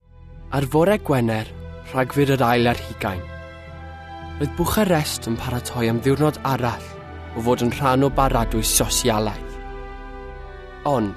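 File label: Welsh; Male; 20s-30s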